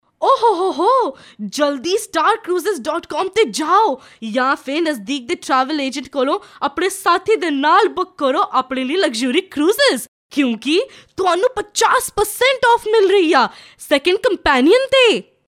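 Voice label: Punjabi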